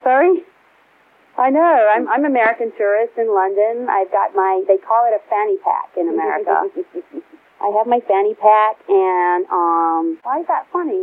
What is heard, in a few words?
News reader pretending to be American tourist